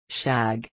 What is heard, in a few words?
"shag", Reino Unido; girias